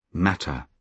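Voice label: "matter", British English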